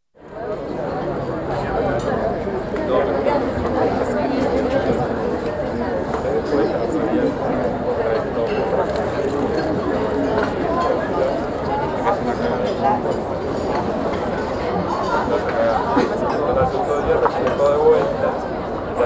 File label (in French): Voix Ext Terrasse Cafe; City